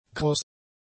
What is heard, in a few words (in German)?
"kross", German; adjectives